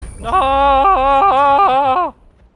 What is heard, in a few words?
noooo voice cracks